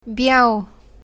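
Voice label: "white", Bulgarian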